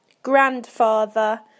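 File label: "grandfather", British English; PictureDictionary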